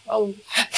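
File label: voice acting, es, female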